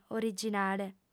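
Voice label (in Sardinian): "originale", female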